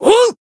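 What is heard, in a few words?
Voice attack, Japanese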